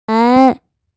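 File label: "ran2", Mandarin Chinese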